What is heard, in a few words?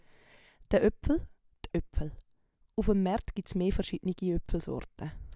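"de Oepfel", Swiss German vocabulary; Wortschatz Kapitel 4; Kapitel 4 Zusatzwortschatz 1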